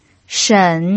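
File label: "shen" Mandarin Chinese, third tone, pinyin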